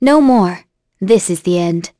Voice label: Vox Skill, en; kingsraid

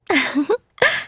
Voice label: Vox Happy; kingsraid; en

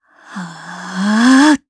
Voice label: Vox Casting jp; Kings Raid

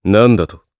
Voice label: Vox, jp; Riheet; kingsraid

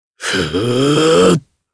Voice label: Casting, jp; Kain; kingsraid

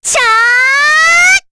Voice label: Casting, kr, voices; heroes; Rehartna; kings raid

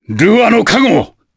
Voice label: Vox Skill jp; Baudouin; kingsraid

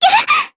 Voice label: Damage, jp; Annette; kingsraid

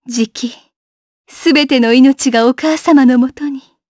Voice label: Vox Victory, jp; kingsraid

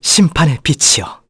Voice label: Vox Skill, kr; Arch; kingsraid

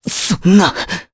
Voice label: Dead, jp; Pavel; kingsraid

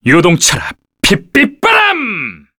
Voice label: Vox Skill, kr; Fluss; kingsraid

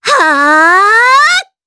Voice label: Vox Casting, jp; Kirze; kingsraid

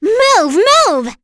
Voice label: Vox Skill, en; kingsraid; voices heroes

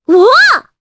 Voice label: Vox, jp; Requina; kingsraid

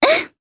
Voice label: Happy, jp; Yuria; kingsraid

